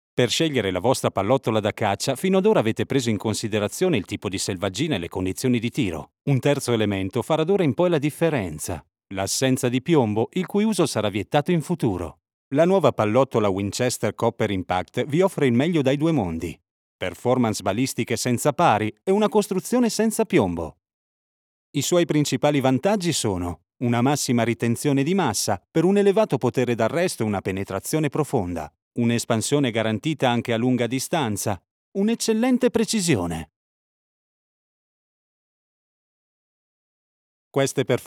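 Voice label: Italian product video; WINCHESTER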